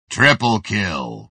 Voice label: "triple kill", multiplay dialogue